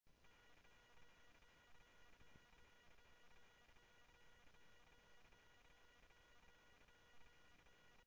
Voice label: scanner audio trunk

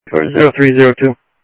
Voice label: scanner audio, Sheriff

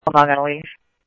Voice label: Tempe Police, scanner; SimulcastF-Tempe

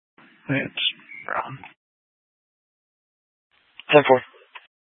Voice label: Surprise Police Dispatch; Regional Wireless Cooperative (RWC) - White Tanks Regional Park

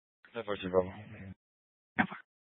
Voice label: Phx PD Dispatch, scanner; C- Chandler

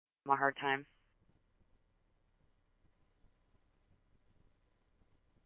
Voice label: Chandler Police, scanner

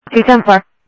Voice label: Sheriff, scanner audio

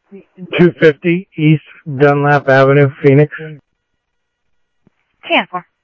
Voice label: Central Sheriff Central, scanner audio trunk

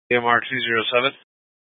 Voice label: FD Dpts VHF 156.240 Patch

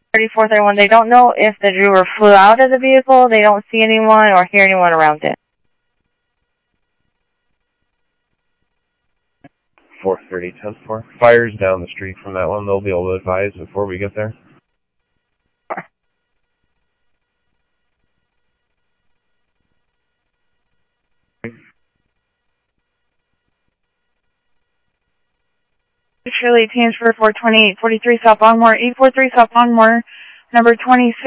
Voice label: Sheriff, scanner audio

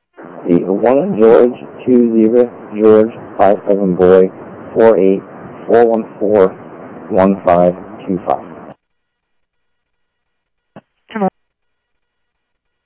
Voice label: Interop Mutual Aid, scanner audio; G1 Interop MutualAid-InterS; ThompsonPeakHigh

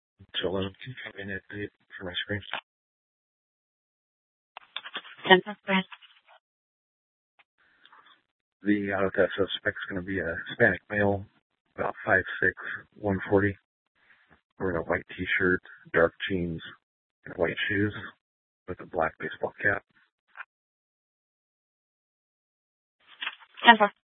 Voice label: Dispatch, scanner; Regional Wireless Cooperative (RWC) - Simulcast H- Scottsdale; Scottsdale PD

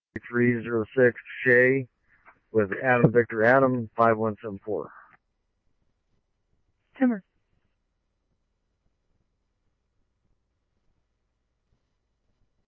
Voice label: scanner, Scottsdale Police; SPD - PD2; RWC; Thompson Peak High

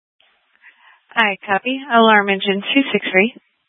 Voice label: Fire Departments, scanner

